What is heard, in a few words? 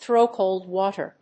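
"throw cold water", English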